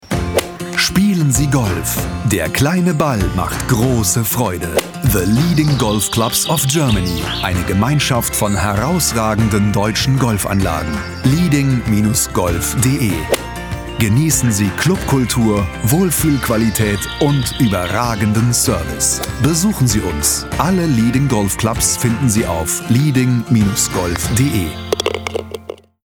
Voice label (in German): Werbespot, Klassik Radio